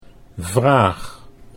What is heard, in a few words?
"vraag", Dutch